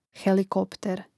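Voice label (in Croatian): "helikopter", Croatian; helikopter (helikopteri) imenica; Rastavljanje na slogove: he-li-kop-ter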